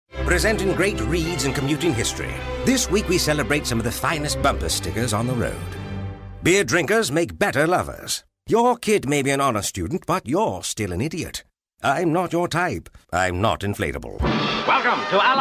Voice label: Character; voice demos